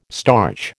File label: "starch", American